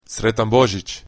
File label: christmas Croatian